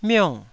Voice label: "miong4", Taiwanese